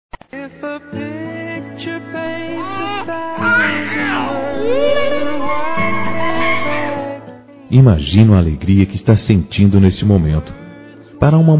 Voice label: Parabéns à Vovó Masculino; Telemensagens; Felicitações; Telemensagens 2017

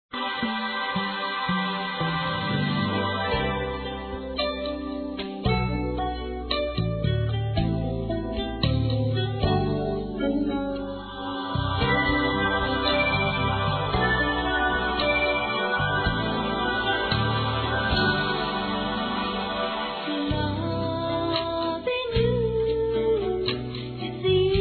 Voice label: DIA DA MULHER PARA NAMORADA M; Telemensagens 2017; Dia Internacional da Mulher

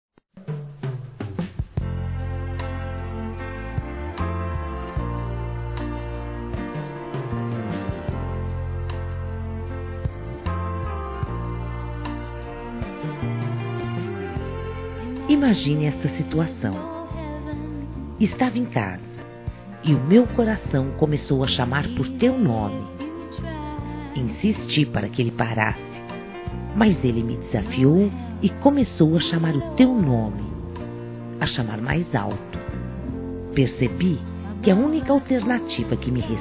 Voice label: Convite Discreto Femin; Telemensagens; Conquistas Cantadas paqueras; Telemensagens 2017

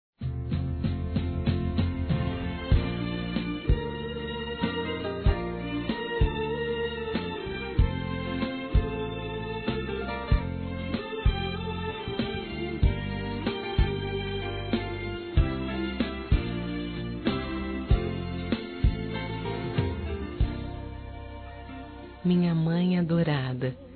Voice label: Mensagem Para Mãe Feminino, Gospel; Telemensagens; Telemensagens 2017

